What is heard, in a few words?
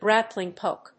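"grappling hook", English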